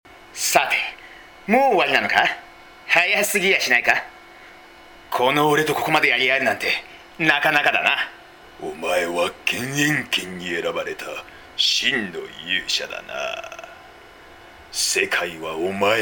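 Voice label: Japanese game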